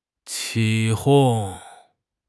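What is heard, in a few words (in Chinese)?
"起哄", Chinese; HSK6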